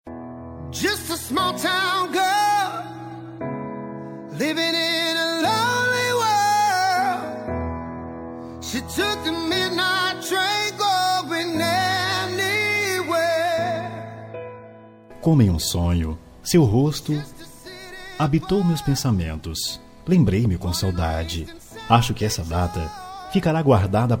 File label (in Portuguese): dia dos namorados ex masculina; dia dos namorados para ex namorada voz masculina